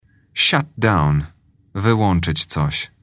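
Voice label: "shut down", English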